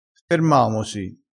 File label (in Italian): "fermamosi", vocabolario dialettale